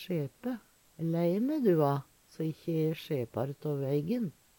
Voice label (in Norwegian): sjepe, Numedalsmål; Lei me du a, so e ikkje sjepar tå veigen.; Verb; Veggli